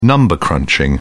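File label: "number crunching", British English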